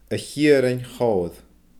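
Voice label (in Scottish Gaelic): "a Chiarain chooir", Scottish Gaelic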